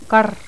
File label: postalveolar rhotic, toda